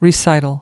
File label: "recital", us